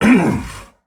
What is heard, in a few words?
Pain Heavy; Warframe; Dax Heavy; Battle Barks